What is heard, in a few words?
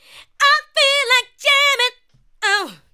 ADLIBS 90; Ueberschall; Jam Box